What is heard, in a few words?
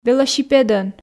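"велосипедӧн", Komi, female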